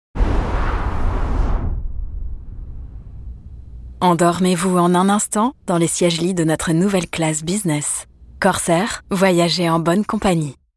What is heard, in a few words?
Spot; Corsair